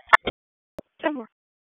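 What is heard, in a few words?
Police Southwest District Dispatch; Raleigh; p25; wake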